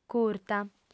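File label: "curta", Sardinian, female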